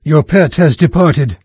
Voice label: pet left, narrator; Fate Undiscovered Realms